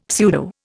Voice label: "pseudo", Indian; Dictionary